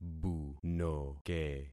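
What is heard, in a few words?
"bunoke", concatenated syllables; Affixing experiment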